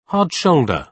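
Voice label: "hard shoulder", British English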